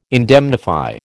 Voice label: "indemnify", American

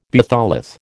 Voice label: "batholith", American

